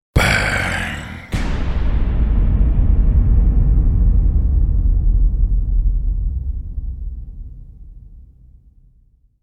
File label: The word ‘Bang’ spoken in deep, ominous male voice with explosion.; Exclamations